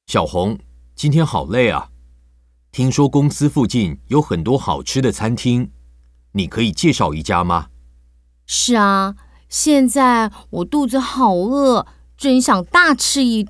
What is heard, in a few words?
Dialogue, Chinese